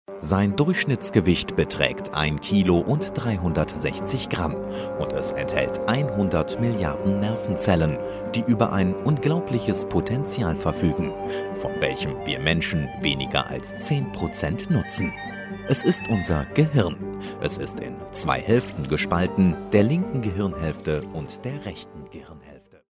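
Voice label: German, male, corporate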